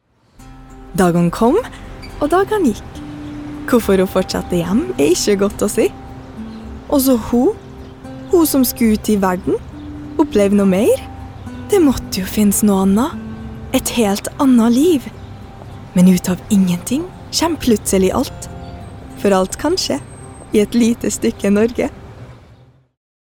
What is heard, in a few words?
Norwegian commercial